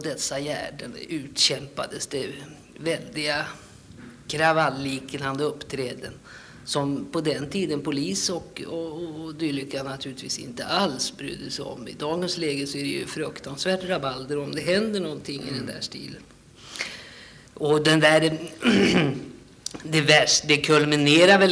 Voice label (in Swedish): Uppsala Upplandskan, dialekter